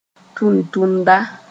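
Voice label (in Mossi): "tʋntʋnda", Mooré; messenger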